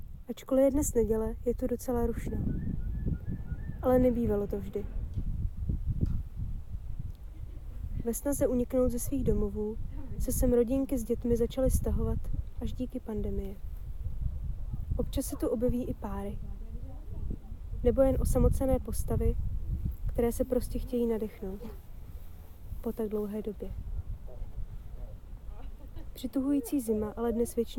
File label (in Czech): narace